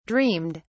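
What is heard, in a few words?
"dreamed", British English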